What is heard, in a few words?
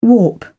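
"warp", English, British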